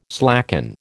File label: "slacken", American